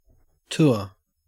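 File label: "tour", Australian English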